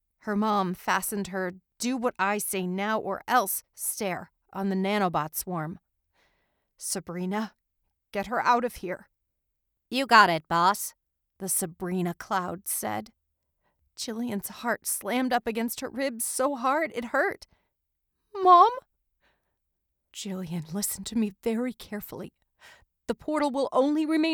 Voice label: Audiobook Narration Middle Grade Passionate Engaged